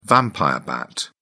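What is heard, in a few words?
"vampire bat", British English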